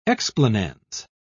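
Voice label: "explanans", English, British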